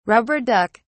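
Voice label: "rubber duck", British English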